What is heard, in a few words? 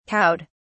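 "cowed", British English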